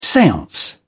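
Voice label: "seance", British English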